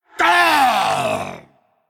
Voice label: Male Undead Death

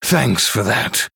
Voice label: Male Human Thanks

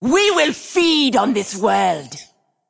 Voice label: Female Blood Troll

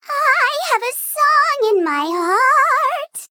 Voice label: Female Sprite Play

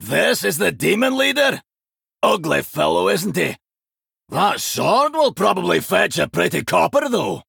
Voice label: Male Dwarf; Tavish; Kurtrus

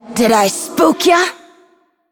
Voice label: Female Forsaken Attack

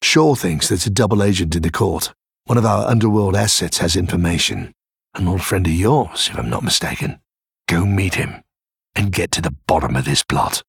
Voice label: Male Human; Story Hero Elling; Scabbs; PreMission1

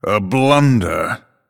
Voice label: Male Night Elf, Oops

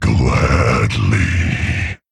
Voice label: Male Tauren Attack

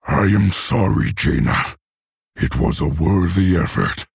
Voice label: Male Orc; Thrall; Valeera

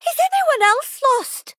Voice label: Female Dwarf

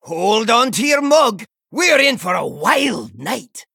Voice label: Inn Keeper Male Dwarf Greeting

